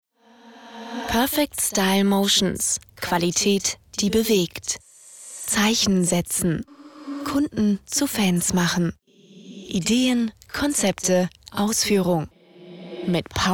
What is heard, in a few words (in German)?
Warteschleife; PSM3